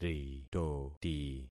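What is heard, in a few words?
"ritoti", concatenated syllables; Affixing experiment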